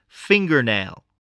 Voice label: "fingernail", spelling

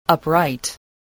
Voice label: "upright", spelling

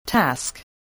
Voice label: "task", English

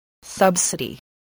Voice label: "subsidy", spelling

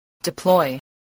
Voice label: "deploy", English

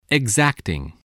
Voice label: "exacting", voice, english

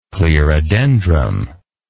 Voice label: "clerodendrum", English

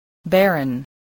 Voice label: "barren", English

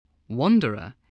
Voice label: "wanderer", spelling

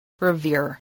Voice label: "revere", English

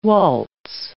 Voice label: "waltz", English

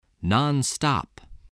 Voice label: "nonstop", voice

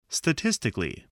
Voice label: "statistically", voice

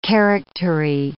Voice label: "charactery", English

